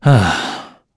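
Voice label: Vox Deny, Korean; Lusikiel; kingsraid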